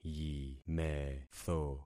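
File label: "yimetho", concatenated syllables; Affixing experiment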